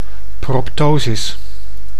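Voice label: "proptosis", Dutch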